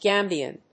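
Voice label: "Gambian", English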